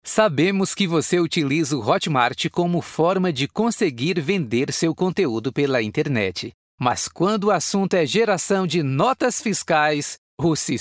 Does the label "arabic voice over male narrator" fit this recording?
No